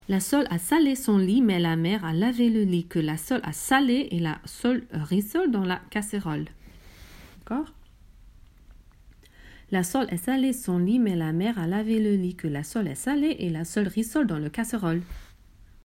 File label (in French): virelangue